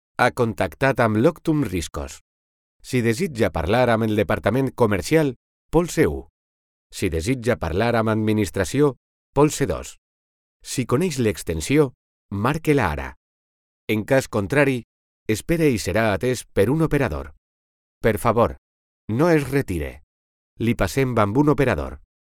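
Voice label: catalan interactive voice response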